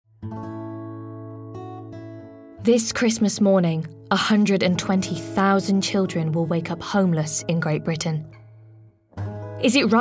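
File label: English UK, charity; GoLocalise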